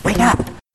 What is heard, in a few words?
wake up quiet